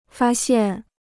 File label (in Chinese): "发现", Chinese